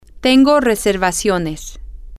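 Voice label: I have reservations, Spanish, female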